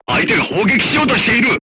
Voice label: voice message art shooting warning, crew tanks japanese